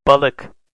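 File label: "balik", Turkish, male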